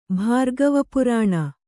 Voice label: "ಭಾರ್ಗವಪುರಾಣ", Kannada

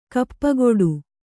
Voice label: "ಕಾಪುಗಾಡು", Kannada

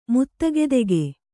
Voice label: "ಮುತ್ತಗೆದೆಗೆ", kannada

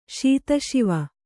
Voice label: "ಶೀತಶಿವೆ", kannada

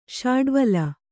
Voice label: "ಶಾಡ್ವಳ", kannada

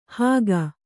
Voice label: "ಹಾಗ", Kannada

